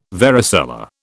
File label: "varicella", British